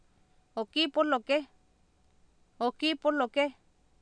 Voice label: "ookIipOlokEh" Nahuatl, Oapan, female; Oapan minimal pairs 2000